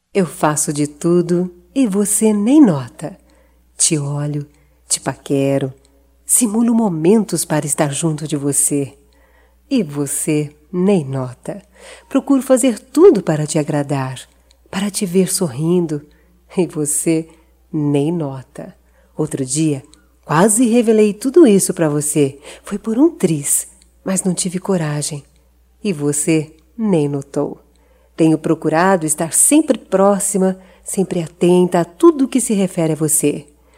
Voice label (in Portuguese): Paquera Feminino, Voz; Telemensagens 2017